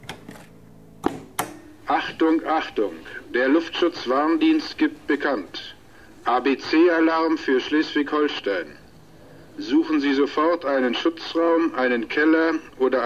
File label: Warning Message German